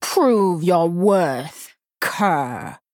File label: Female Troll Play